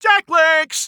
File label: Voice Sonic Brand Trigger Sting; JackLinks